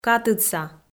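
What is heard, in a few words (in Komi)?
"катыдса", Komi, female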